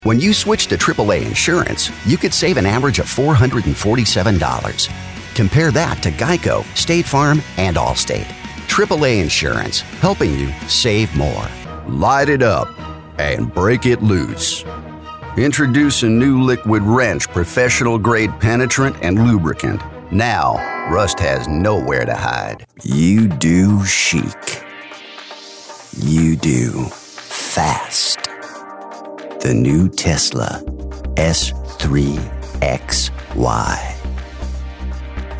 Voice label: Commercial Reel